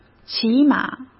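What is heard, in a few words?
"起码", Mandarin Chinese; chinese-flashcards; hsk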